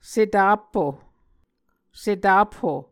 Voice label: "setapho", Muscogee